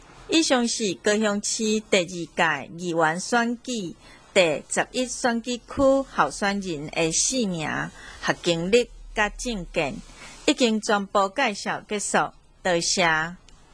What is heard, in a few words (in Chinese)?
台語; 高雄市; 高雄市市長議員有聲選舉公報; 第十一選區